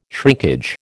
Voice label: "shrinkage", American